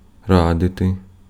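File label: Ukrainian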